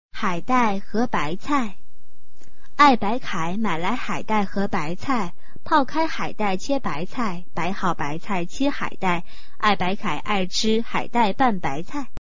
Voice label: chinese tongue twister